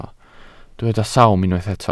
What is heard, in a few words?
"sawm", welsh